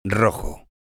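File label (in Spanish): "rojo", Spanish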